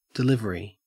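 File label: "delivery", Australian English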